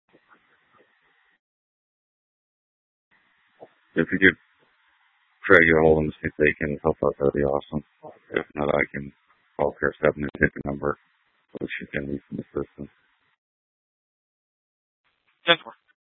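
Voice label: Tempe police department, scanner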